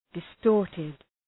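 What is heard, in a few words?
"distorted", English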